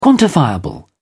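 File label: "quantifiable", English, British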